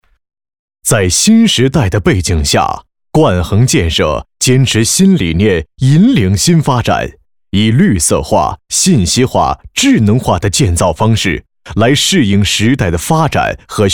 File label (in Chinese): 冠恒企业形象宣传片旁白配音